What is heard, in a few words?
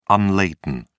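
"unladen", English, British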